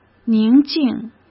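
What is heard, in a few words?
"宁静", Mandarin Chinese; chinese flashcards; hsk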